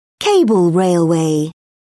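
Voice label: "cable railway", British English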